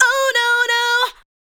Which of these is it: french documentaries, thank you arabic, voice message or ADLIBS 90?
ADLIBS 90